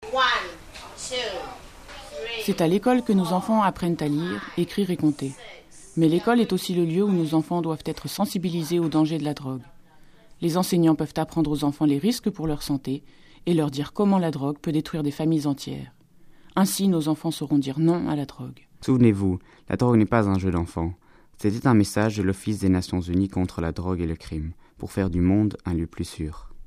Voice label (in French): French